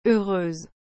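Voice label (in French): "heureuse", French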